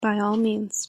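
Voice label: "by all means", English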